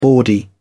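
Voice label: "bawdy", English, British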